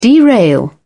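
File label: "derail", English, British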